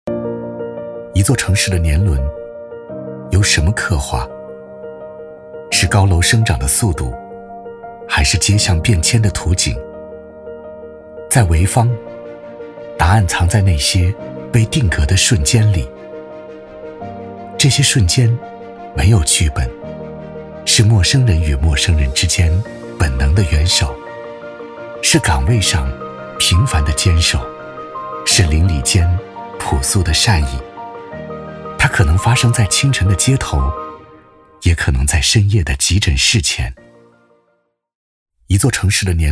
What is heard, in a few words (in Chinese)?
男 颁奖 温馨 娓娓道来